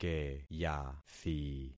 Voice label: "keyathi", concat syllables; Affixing experiment

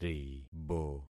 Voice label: "ribo", concat syllables; Affixing experiment

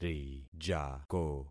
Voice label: "rijako", concat syllables; Affixing experiment

